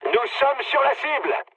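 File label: near waypoint, mood high, French; War Thunder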